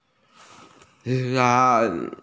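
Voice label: "chang4", Mandarin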